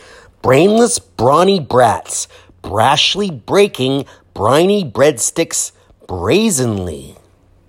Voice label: Br Twister